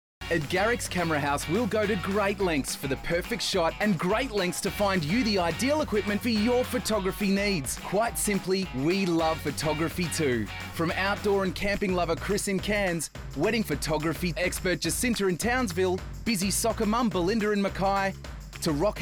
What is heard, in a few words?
corporate tvc; Garrick's Camera House